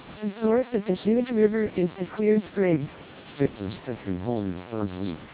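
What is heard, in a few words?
American, SNR=10dB, Input speech, Vocoder; TWELP 400 bps; NCSE Disabled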